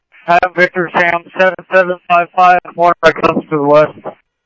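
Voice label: Simulcast PD Police Department, scanner audio trunk; Mesa Simulcast; MESA PD 2 Centrl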